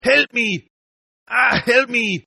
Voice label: Toughguy Help me English, Tough Hispanic Male Saying; Exclamation